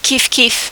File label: "kif kif", French